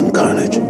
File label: "carnage", "大虐殺"